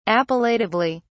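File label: "appellatively", British English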